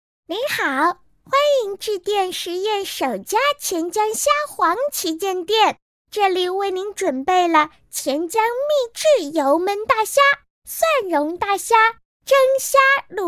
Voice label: 女 童声彩铃

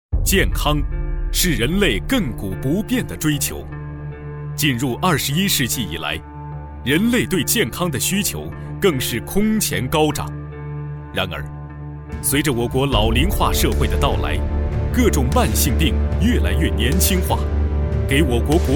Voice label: 男 大气 健康类宣传片

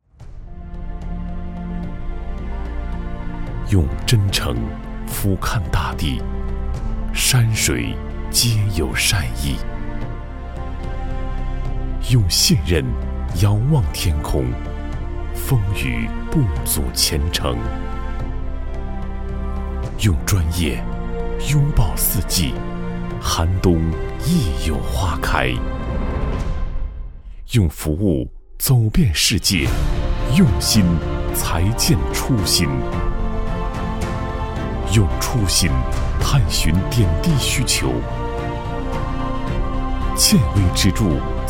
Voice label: 男,专题,私人银行

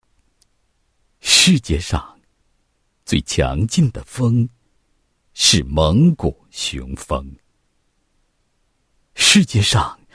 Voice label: 男 解说; 内蒙古大学30周年同学聚会解说词

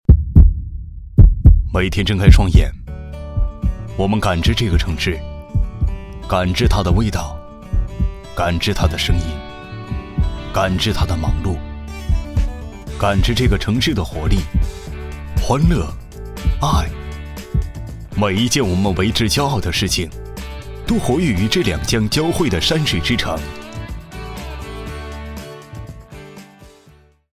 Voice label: 专题 宣传片, 国语男声配音; 华融现代广场

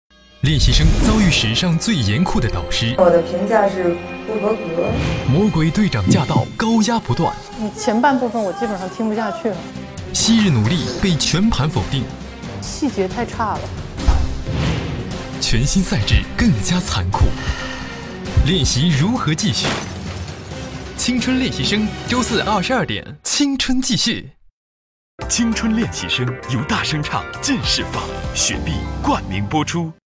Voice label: 男 栏目 宣传片, 国语男声配音; 浙江卫视《青春练习生》第五期宣传片华丽丽来袭

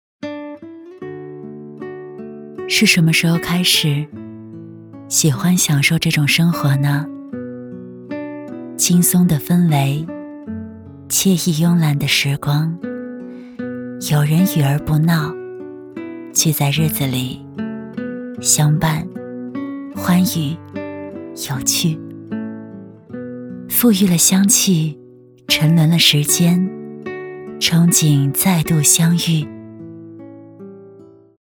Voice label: 微电影 一束花的故事 素人温暖讲述

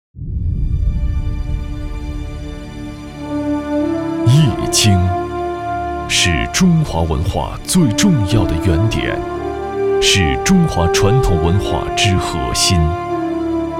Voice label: 男 神秘沧桑; 易经探迷

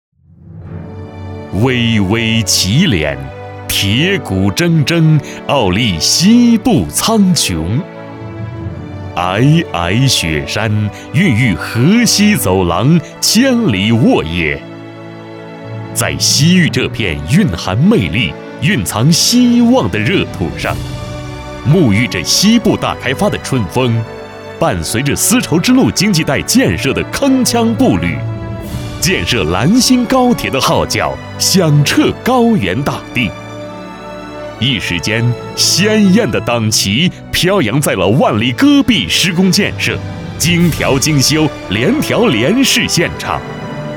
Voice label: 男, 党建专题; 党旗映红新-丝路

